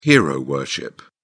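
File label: "hero-worship", British English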